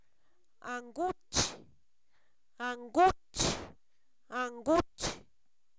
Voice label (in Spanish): costal, Zapoteco, san miguel albarradas; objetos inanimados